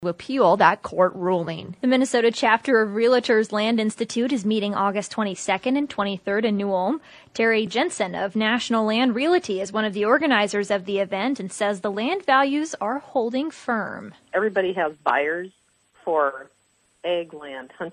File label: air check; Realtors Land Institute